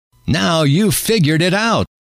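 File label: now you've figured it out, male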